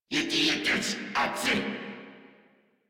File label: Reload Crewmen, en